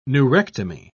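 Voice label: "neurectomy", British English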